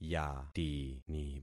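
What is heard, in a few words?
"yatini", concatenated syllables; Affixing experiment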